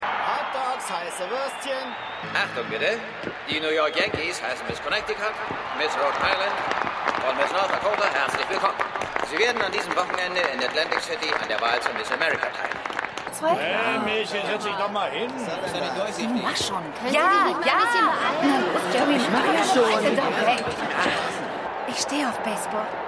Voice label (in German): Hotdogverkaeufer Stadionsprecher Leute, Stimmproben; Miss Dakota; Seinfeld